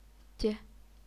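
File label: "jie", Chinese, neutral tone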